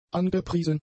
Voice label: "angepriesen", German; adjectives